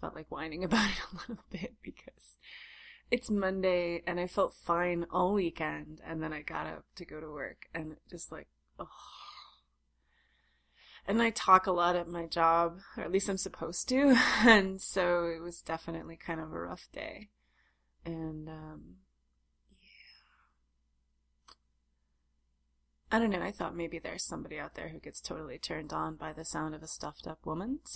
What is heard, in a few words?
sick whine